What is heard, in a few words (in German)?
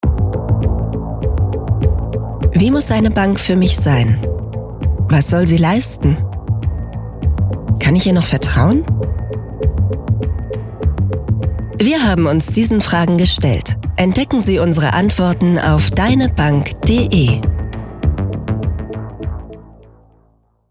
werbung bank